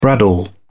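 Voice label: "bradawl", English, British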